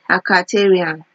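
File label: "akaterian", Nigerian Pidgin, female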